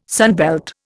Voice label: "sunbelt", Indian